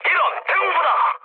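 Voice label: Korean damaged aa mood high, speech; War Thunder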